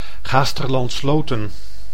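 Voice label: "Gaasterland-Sloten", Dutch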